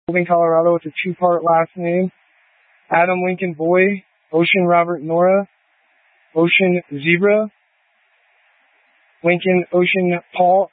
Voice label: Police Department Dispatch